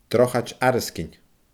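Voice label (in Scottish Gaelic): "Drochaid Arasgain", Scottish Gaelic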